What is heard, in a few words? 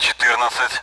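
Speech Sound Russian Common AWACS Numbers; DCS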